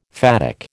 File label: "phatic", American